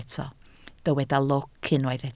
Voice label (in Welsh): "lwc", Welsh